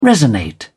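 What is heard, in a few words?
"resonate", British English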